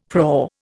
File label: "prau", Indian; Dictionary